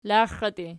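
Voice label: "laxatii" nominative, Archi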